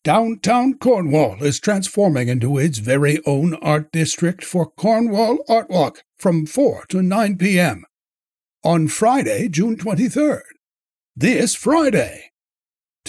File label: Radio Ad; Cornwall Art Walk 2023